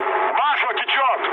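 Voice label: Russian damaged oil mood med, speech; War Thunder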